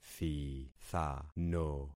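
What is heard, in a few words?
"thithano", concatenated syllables; Affixing experiment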